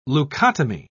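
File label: "leukotomy", British English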